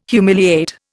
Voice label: "humiliate", Indian